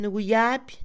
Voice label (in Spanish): nadar, Mixe, Mujer; Movimiento; INALI